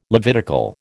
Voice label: "Levitical", American